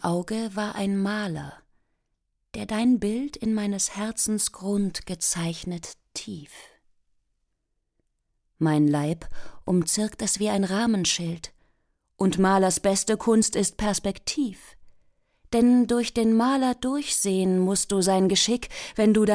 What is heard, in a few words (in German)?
Sprecher Demo Lyrik Sonett; William Shakespeare; Sonett 24